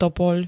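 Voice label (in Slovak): "topoľ", Slovak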